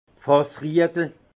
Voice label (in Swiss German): "fassriegel", alsace; verrou de tonneau